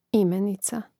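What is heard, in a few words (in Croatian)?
"imenica", hrvatski; mreznik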